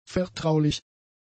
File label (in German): "vertraulich", German; adjectives